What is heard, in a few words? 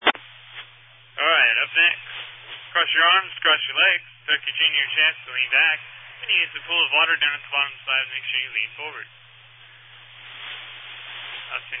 Family Radio Service (FRS)